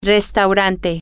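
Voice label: "restaurant", Spanish, female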